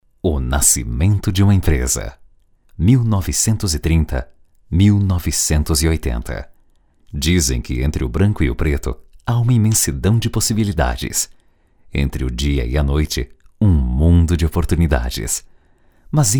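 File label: locutor brasil brazilian voiceover, male; locutor brasileño; portugués de Brasil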